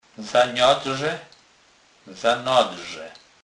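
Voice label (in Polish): "zanadrze", Kurpie dialect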